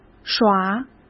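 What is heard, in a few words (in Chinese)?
"耍", Mandarin; chinese flashcards; hsk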